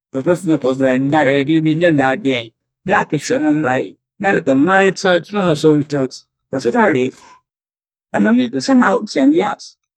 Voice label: fuck scream, anger